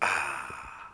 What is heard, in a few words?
guy happy; Classic Cribbage 1.0